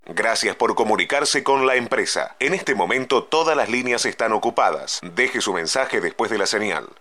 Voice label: Spanish Latin